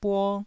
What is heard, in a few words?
"buo1", taiwanese